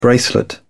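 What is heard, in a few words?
"bracelet", English, British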